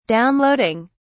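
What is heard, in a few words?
"downloading", British English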